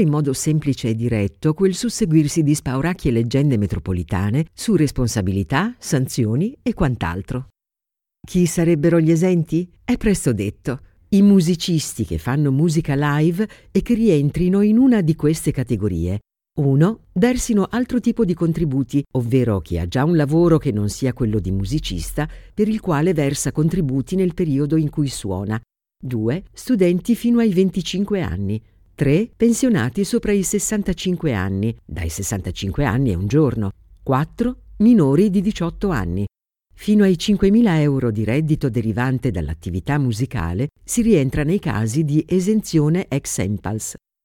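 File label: Italian, Corporate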